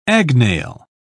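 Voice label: "agnail", British English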